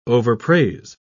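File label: "overpraise", British English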